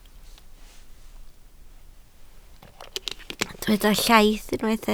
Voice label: "llaith", Welsh